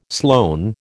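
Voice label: "Sloane", American English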